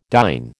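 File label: "dyn", American English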